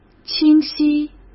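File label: "清晰", Mandarin Chinese; hsk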